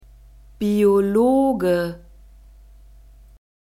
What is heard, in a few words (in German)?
"Biologe", German; masculine